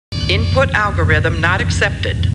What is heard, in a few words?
Computer Voice; Star Trek; StarTrekLCAR